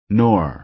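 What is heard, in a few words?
"nor", American English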